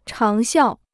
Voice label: "长效", Chinese